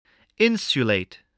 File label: "insulate", voice spelling